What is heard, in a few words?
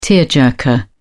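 "tearjerker", British English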